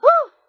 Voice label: "oh" woman startled; ooh ahh